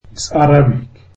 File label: zulu Arabic